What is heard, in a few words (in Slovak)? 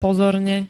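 "pozorne", Slovak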